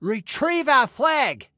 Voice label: Flag Retrieve, Male

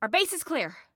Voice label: Base Clear, Female

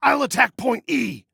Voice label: ATTACK, Male

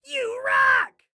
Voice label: Compliment You Rock, Excited Guy

